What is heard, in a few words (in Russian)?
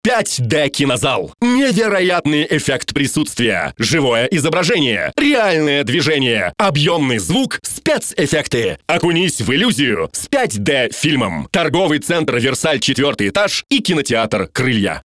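информационный ролик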